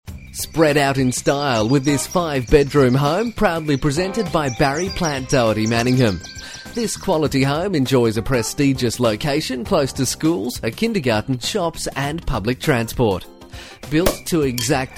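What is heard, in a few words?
Australian English, male, voice over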